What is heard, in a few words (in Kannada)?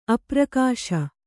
"ಅಪ್ರಕಾಶ", Kannada